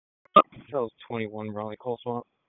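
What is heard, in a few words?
Police Northeast District Dispatch; Raleigh; p25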